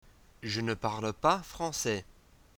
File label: "french"; tourism